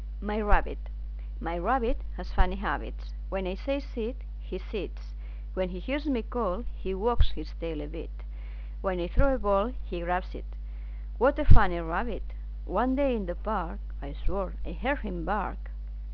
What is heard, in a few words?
poem